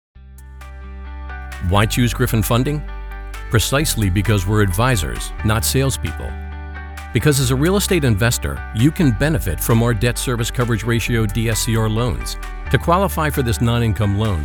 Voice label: Corporate, narration